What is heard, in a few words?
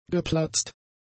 "geplatzt", German; adjectives